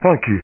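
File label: "thank you", male voice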